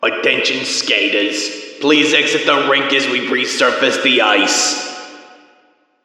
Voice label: Intercom cap closed